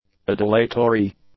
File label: "adulatory", English; adjectives